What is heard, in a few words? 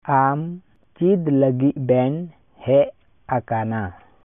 Santali; How did you come?